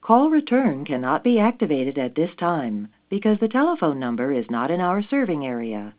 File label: female